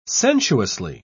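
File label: "sensuously", British English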